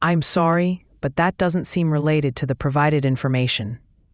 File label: question english